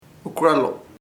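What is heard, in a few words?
bedbug chinche, Chamacoco word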